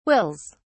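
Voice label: "wills", British English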